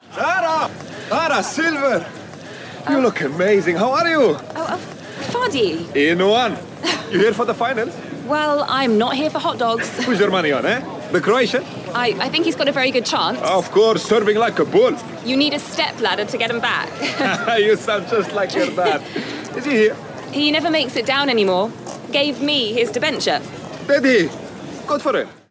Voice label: English Mid East Accented Radio Drama; Supertower